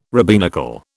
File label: "rabbinical", British